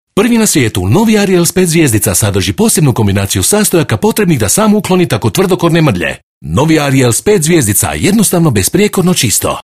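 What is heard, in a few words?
croatian male commercial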